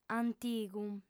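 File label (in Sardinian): "antigu", lingua sarda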